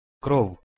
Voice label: "krov", Ukrainian

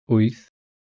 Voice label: "wyth", Welsh

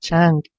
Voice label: "chang", Persian

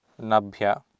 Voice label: "nabhya", Sanskrit

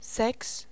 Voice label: "6", Faroese

